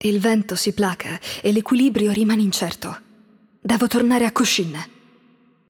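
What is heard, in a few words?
Italian game outro; VO; bloom minigame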